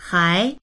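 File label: "hai2", Chinese; 还; まだ、やはり、なお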